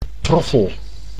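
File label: "troffel", Dutch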